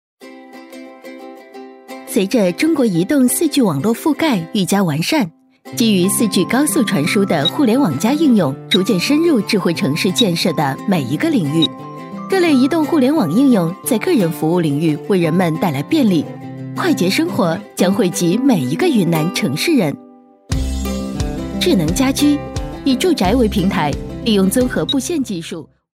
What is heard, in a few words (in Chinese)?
女 课件 互联网科技范儿